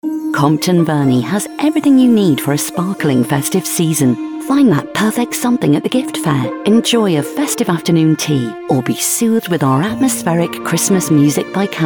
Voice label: female english radio commercial; compton verney